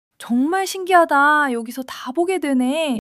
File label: excitement; monologue